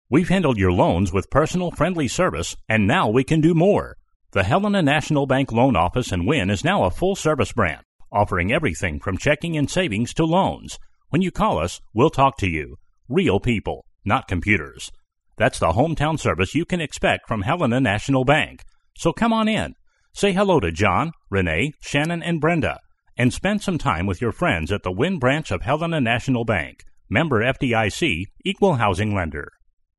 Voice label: male US retail